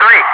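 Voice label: "3", English speech, Wingman; loud; DCS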